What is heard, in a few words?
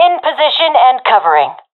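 in position, professional female; vo; Counter Strike Model Pack; CS:GO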